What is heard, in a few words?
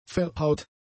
"verhaut", German; adjectives